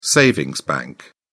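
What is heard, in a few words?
"savings bank", English, British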